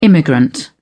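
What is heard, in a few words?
"immigrant", British English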